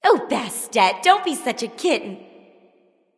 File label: taunt directed bastet; smite; neith